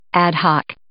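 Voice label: "ad hoc", English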